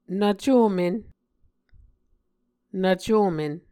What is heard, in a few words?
"nvcomen", Muscogee